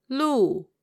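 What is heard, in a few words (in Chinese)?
"lu", Mandarin Chinese, female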